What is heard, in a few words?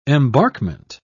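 "embarkment", British English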